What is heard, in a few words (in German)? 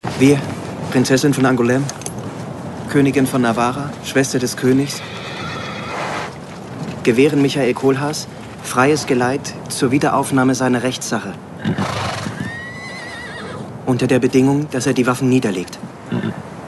Schauspiel Sanft